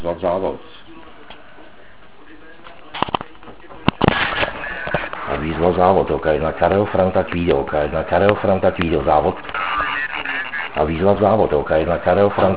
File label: SSB run